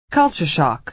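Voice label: "culture shock", American English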